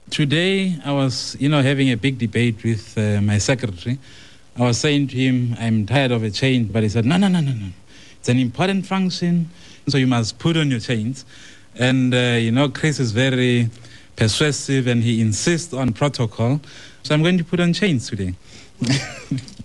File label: South African Black